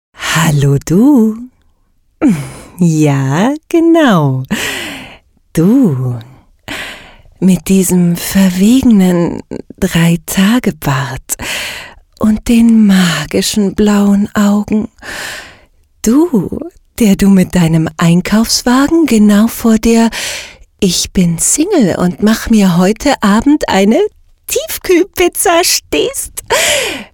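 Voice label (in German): deutsch female werbung rollen werbung fuer radiowerbung; nike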